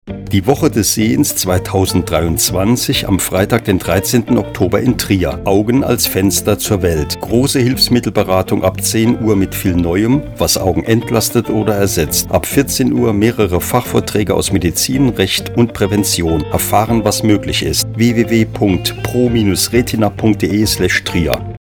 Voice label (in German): Spot mit Musik; Pro Retina